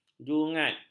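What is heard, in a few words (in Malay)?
"jungat", Malay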